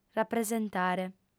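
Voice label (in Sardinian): "rapresentare", female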